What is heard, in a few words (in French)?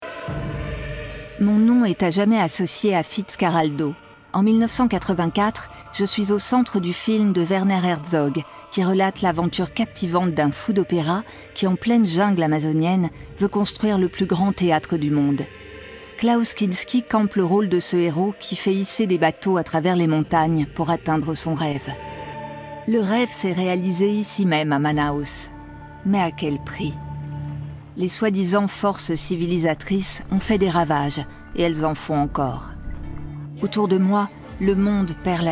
Narration; Le teatro Amazonas